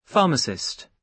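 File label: "pharmacist", British English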